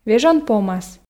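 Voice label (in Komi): "вежон помас", Komi, female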